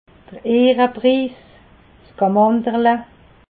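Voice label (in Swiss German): "ehrepris", Alsatian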